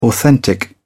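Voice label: "authentic", British English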